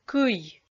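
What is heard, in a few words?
"кый" Komi, female; kpv audio dict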